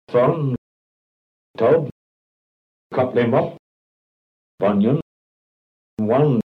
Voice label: kent strut, kent accent; staple

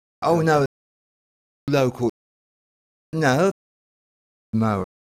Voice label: goat, kent examples accents; meopham

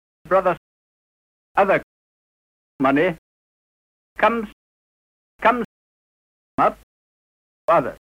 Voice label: rp strut; 19th c rp; rpn powell

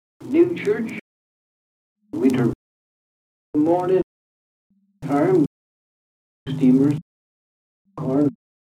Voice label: kent, accents; appledore

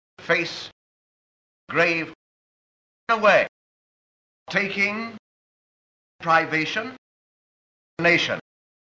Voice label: rp face, kent examples; stanley baldwin

